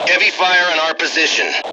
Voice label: underfire heavy, M Voice English